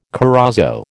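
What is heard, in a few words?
"corozo", American English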